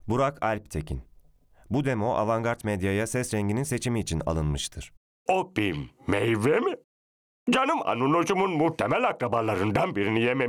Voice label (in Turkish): animasyon